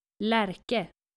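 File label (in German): "Lærke", dänische Mädchennamen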